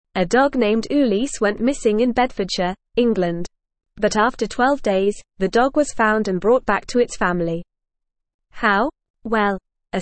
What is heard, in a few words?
English Upper Intermediate FAST Reading; English Newsroom; Thermal drone helps locate missing dog in Bedfordsh